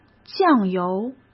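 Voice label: "酱油", Mandarin Chinese; hsk; chinese flashcards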